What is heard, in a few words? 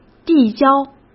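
"递交", Mandarin Chinese; hsk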